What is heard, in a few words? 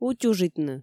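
"утюжитны" Komi, female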